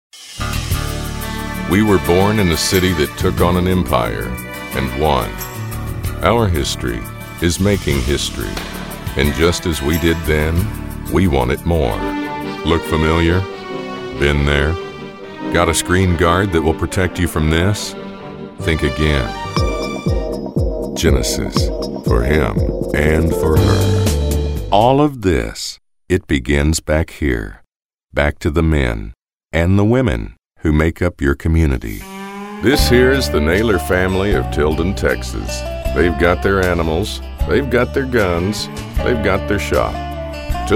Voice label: Commercial